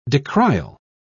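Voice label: "decrial", British English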